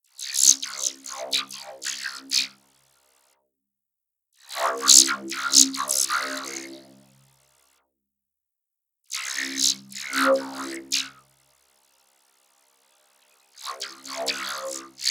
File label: Robot Statements